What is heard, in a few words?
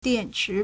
"dian4 chi3", Chinese